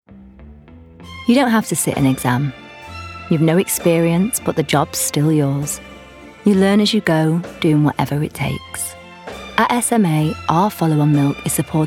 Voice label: Ad; SMA

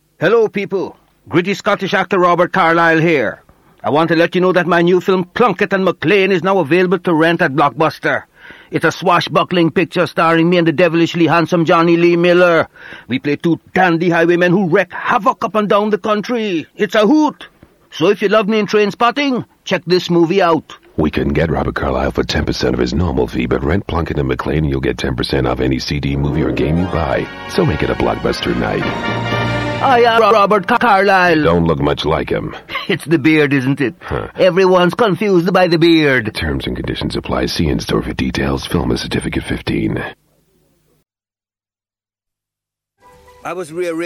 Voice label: commercial reel

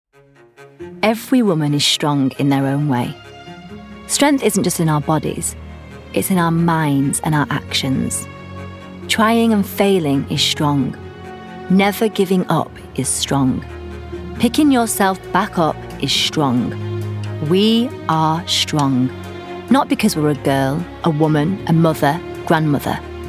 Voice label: Ad; JustStrong

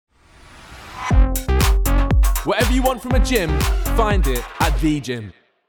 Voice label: Gym Commercial

